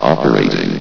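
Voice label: "operating", English, station announcer voice; S.P.L.U.R.T-Station-13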